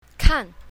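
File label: "kan4", Chinese pinyin, south, female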